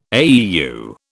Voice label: "AEU", British